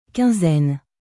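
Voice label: "quinzaine", French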